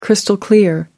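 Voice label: "crystal clear", British English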